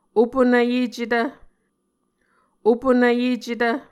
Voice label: "opunvyecetv", Muscogee

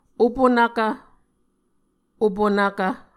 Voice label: "opunvkv", Muscogee

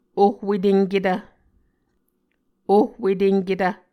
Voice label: "ohwetenketv", Muscogee

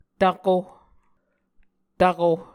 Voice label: "tvko", Muscogee

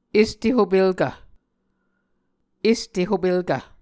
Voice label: "este hopelkv", Muscogee

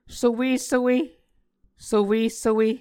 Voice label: "sowesowe", Muscogee

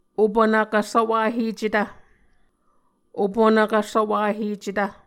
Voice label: "opunvkv svwahecetv", Muscogee

